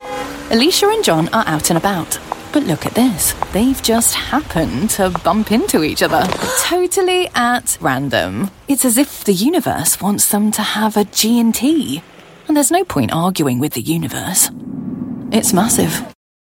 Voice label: conversational humour